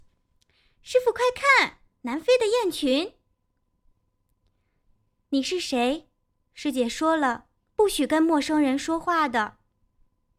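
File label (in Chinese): 萝莉 少女 少御 御姐 大妈 老年, 女声配音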